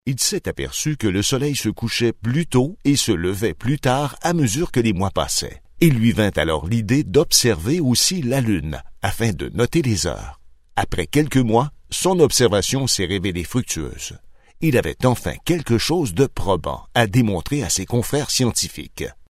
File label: french canadian narration